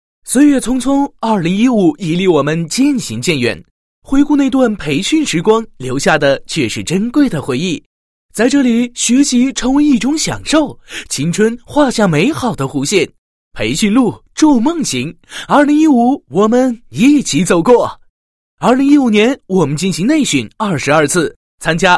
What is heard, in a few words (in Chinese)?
男 总结, 配音; 2015年度培训总结